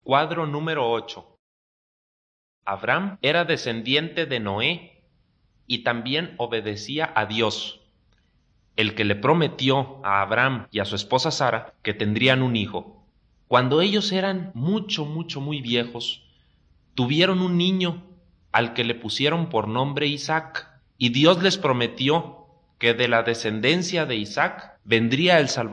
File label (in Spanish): good news spanish mexico